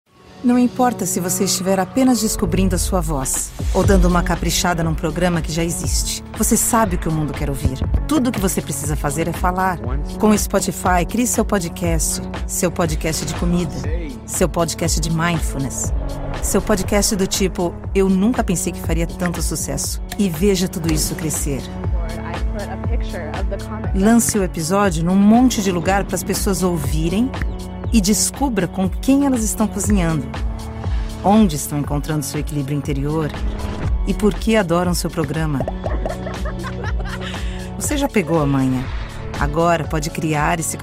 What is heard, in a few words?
Portuguese Brazilian